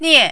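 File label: "nie", Mandarin, fourth tone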